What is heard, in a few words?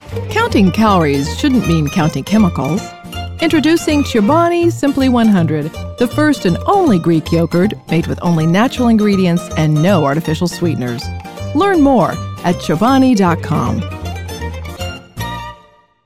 Commercials; Chobani